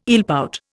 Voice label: "eelpout", Indian